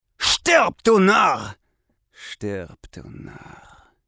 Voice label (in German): Narr